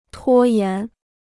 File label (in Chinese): "拖延", Chinese